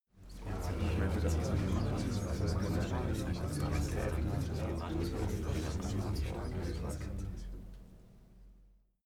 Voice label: talk german neutral quiet short

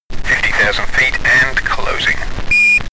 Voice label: astronaut chatter

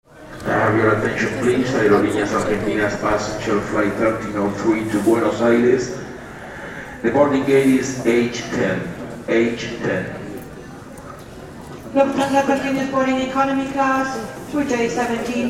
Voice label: airport english to buenos aires

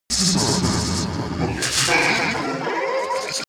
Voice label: crazy talk

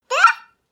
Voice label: baby talk

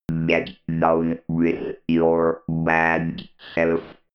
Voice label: robot voice funnky

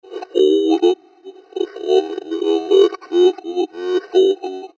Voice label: alien short phrase

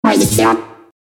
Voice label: alien speech

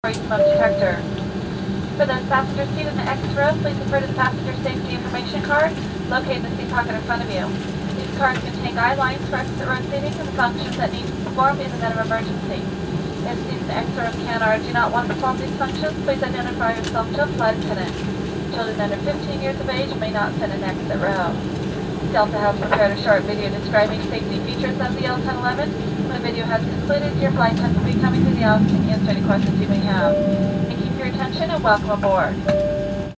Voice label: airplane english

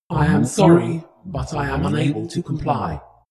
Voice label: spaceship female status report

